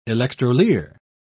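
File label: "electrolier", British English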